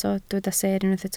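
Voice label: "seer" Welsh, spoken word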